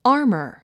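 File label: "armor", English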